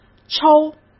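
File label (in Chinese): "抽", Mandarin Chinese; hsk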